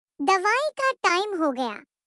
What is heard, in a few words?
Dawai Ka Time Ho Gaya Baby Voice